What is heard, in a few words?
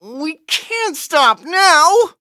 defeat, en; cookierun